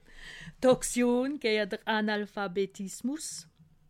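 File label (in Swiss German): "analphabetismus", Alsatian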